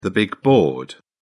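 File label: "big board", English, British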